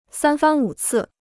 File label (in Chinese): "三番五次", Chinese